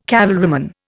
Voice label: "cavalryman", Indian

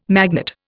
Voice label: "magnate", Indian

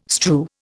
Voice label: "strew", Indian

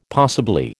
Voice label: "possibly", American

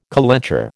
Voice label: "calenture", American

